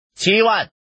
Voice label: man